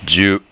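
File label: "zyu", Japanese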